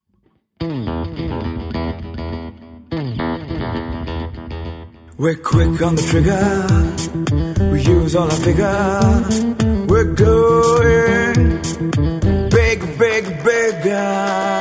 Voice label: english, male voice